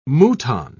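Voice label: "mouton", British English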